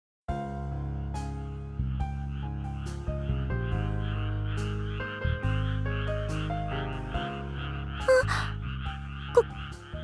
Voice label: DOKIDOKI添い寝ボイス

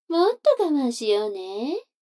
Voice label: 女の子台詞, ASMR

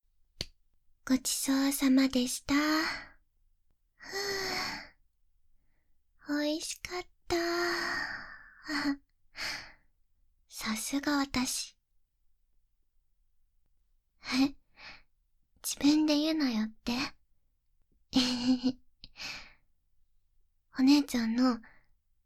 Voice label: 耳かきさせて?（導入）; お姉ちゃんに耳かきさせて～2人きりの癒しの一日～

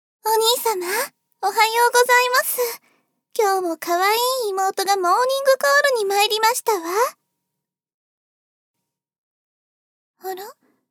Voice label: 妹とモーニングコール

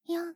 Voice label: 畳み掛けカウント

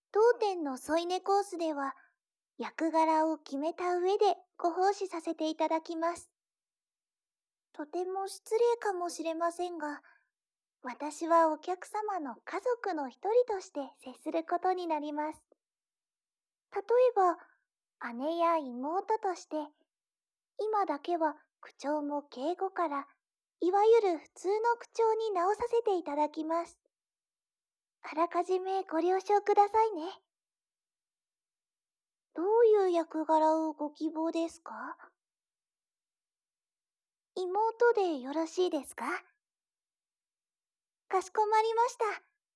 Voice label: 添い寝コース; くつろぎ屋 美鈴編; LYTO; 耳かき; 催眠

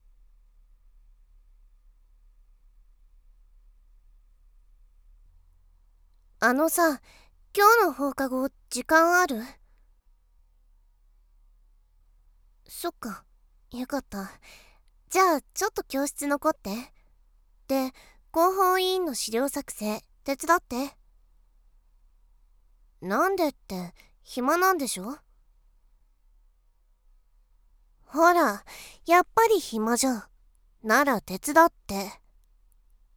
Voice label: 冷たく誘う話

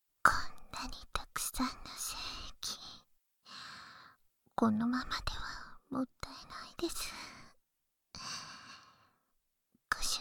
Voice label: お掃除パイズリフェラ（バイノーラルマイク 効果音あり大きめ）

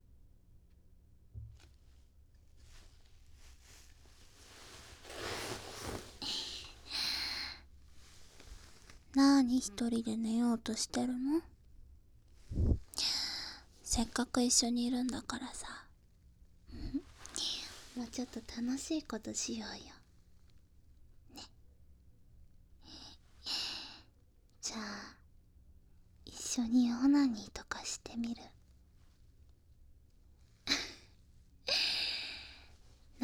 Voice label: ささやき相互オナニー バイノーラル, ASMR

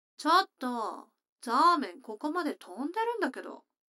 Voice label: 女の子 台詞, ASMR

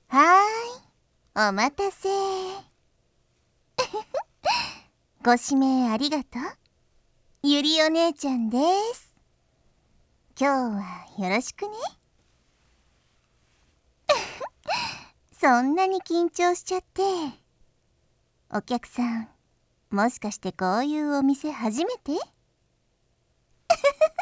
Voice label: 明るいお姉さん・百合 効果音なし

